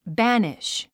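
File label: "banish", English word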